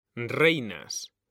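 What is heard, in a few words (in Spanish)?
"REINAS", Spanish; mekanta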